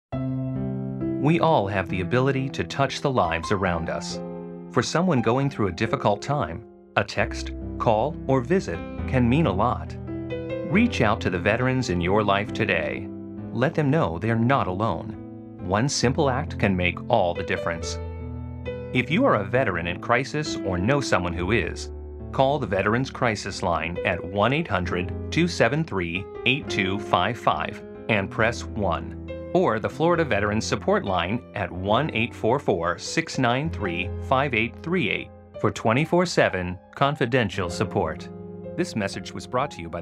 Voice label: PSA Male